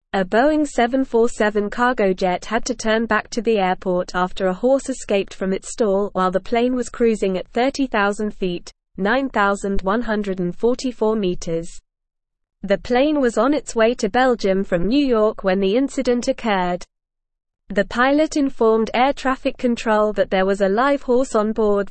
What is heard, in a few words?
English Newsroom Advanced NORMAL Reading; Horse chaos forces cargo jet to turn back